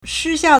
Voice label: "shi1xiao4", Chinese